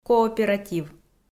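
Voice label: "кооператив", Komi, female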